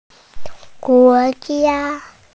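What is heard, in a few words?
"country", Mandarin Chinese, female; guo2jia1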